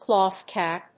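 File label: "cloth cap", English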